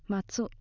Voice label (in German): "matsu" tanne, japanese accent